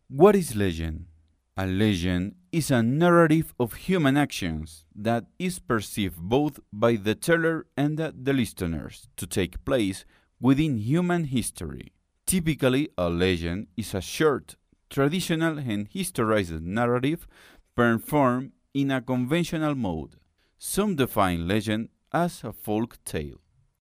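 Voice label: Spanish Latin America male voice over, English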